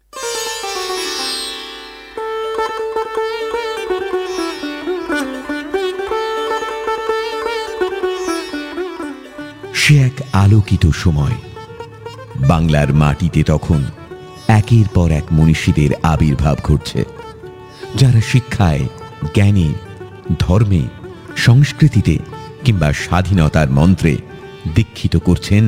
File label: Audiobook Sample